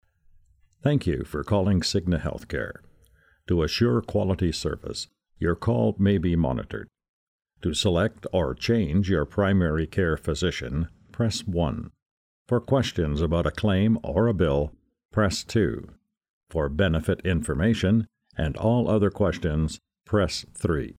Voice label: PHONE; CIGNA